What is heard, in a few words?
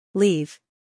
"leave", English